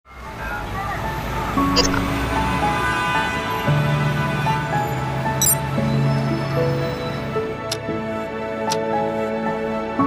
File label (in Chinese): 男 青年人才招聘计划; 龙城夜未央